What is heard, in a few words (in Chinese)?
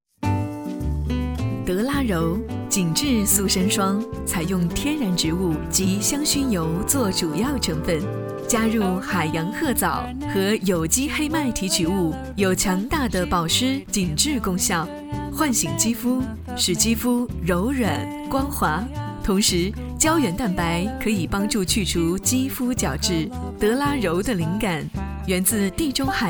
女, 专题产品, 塑身霜, 美体